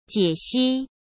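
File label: "解析", Mandarin Chinese; chinese-flashcards; hsk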